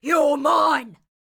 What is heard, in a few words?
Ranged Female Alert Line, Thug Spotted